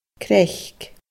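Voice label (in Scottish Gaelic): "craic", Scottish Gaelic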